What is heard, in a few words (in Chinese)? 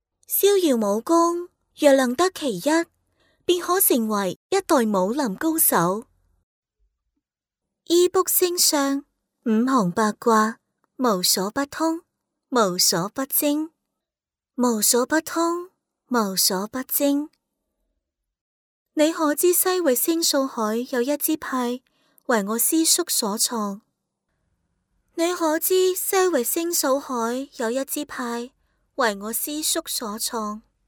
女 粤语游戏